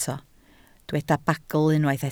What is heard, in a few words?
"bagl", Welsh